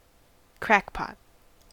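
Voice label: "crackpot", US English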